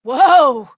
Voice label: Fail Interjections, Adult, en

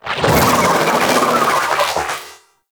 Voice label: Vocal Attack; Warframe; Sentients; Conculyst

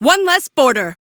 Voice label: Crew Enemy Defeat, female; Warframe; Railjack; Cephalon Suda

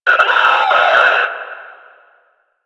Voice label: Enemy Pain; Warframe; Lasrian; Lasrian Enemy C

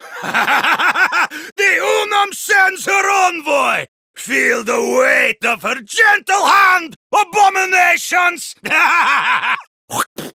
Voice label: Villagers Ostron Male Older en

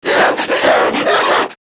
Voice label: Struggle, Battle Barks; Warframe; Grineer Manic

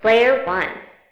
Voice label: Arcade Voices

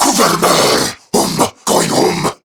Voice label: Cover Me Corrupted Grineer Male

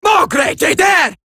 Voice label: Cover Me Eximus Grineer Female

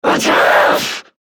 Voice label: Attack Grineer Manic; Battle Barks

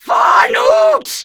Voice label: Attack, Female

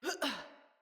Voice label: Roll Female, Battle Barks; Envoy

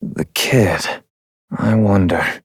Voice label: Adult Op, en; New War; Transference

